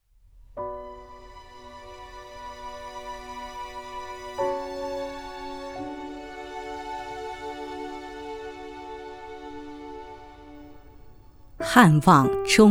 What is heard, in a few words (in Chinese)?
朗诵 悲壮, 女国; 钟楼